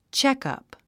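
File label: "checkup", English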